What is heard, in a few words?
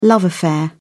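"love affair", British English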